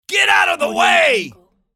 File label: man yelling get out of the way